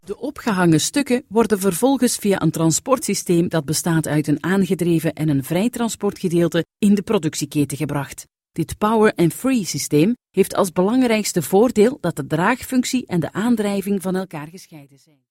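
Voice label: Dutch Flemish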